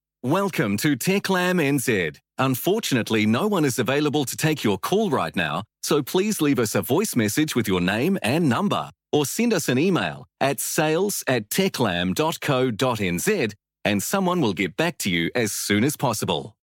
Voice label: Voicemail Greeting